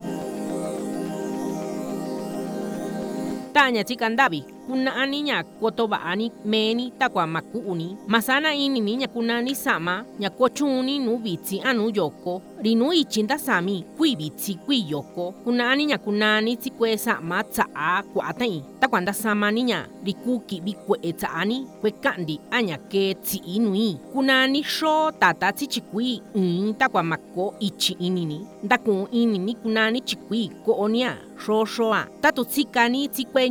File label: MIXTECO